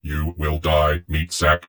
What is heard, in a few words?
cheavy provoke, vox; Dystopia; vox new